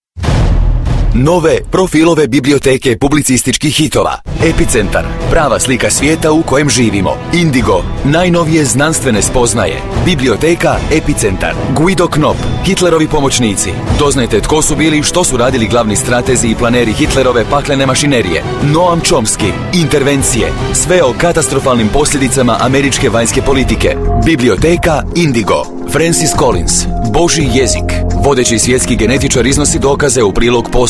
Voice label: Kroatien M

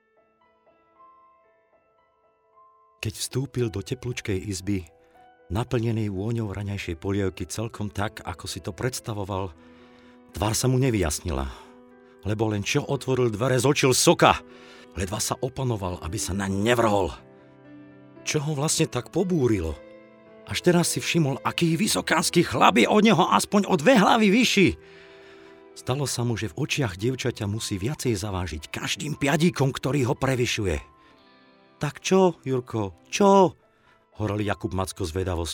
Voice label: Slowakei M6A, voiceactors

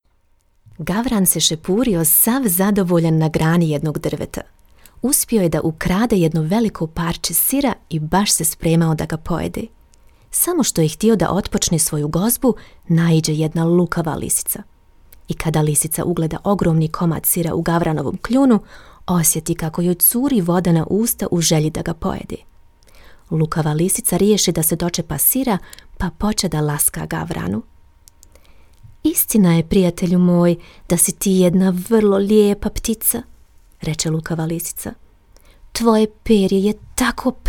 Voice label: Bosnien W

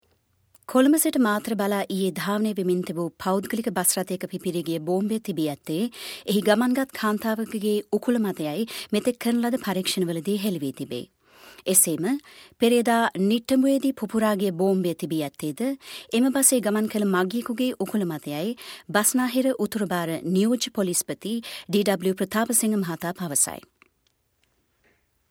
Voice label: Sri Lanka W

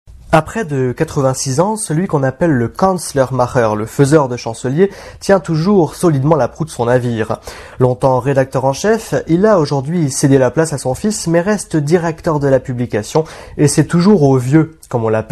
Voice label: Frankreich M

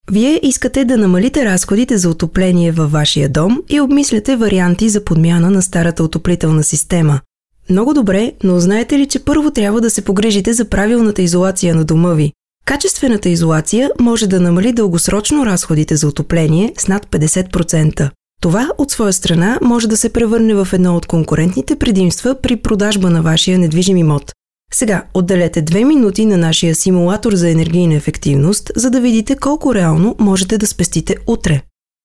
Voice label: Bulgarien W

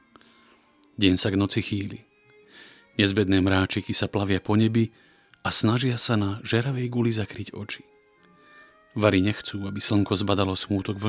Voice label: Slowakei M3B

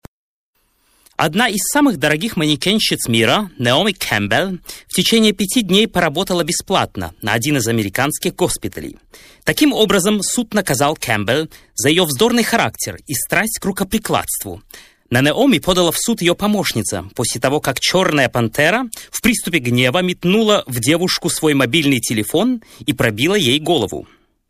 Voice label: Russland M

